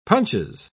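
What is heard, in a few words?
"punches", British English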